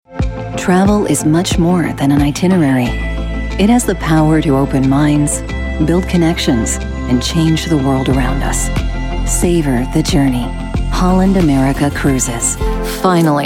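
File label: English US Narration Voice Overs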